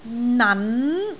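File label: "nan2", Cantonese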